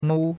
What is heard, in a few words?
"nou1", chinois; Cours de Chinois